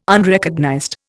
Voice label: "Unrecognized", Indian

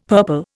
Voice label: "purple", Indian; Dictionary

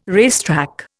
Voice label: "racetrack", Indian

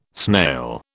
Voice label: "snail", British

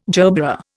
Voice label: "gerbera", Indian

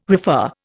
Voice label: "refer", Indian

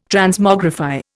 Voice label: "Transmogrify", Indian

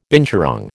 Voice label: "binturong", American

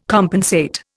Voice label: "compensate", Indian

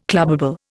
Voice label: "clubbable", Indian

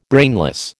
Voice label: "brainless", American

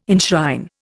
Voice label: "enshrine", Indian

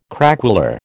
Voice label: "craquelure", American

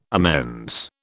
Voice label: "amends", British

